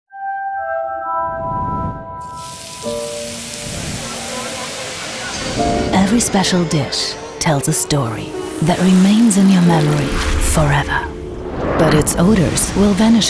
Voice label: English Image